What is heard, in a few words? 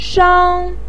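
"shang", Mandarin pinyin, first tone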